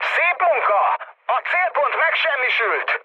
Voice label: bomb success, mood med, speech hun; War Thunder